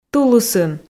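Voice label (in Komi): "тулысын", female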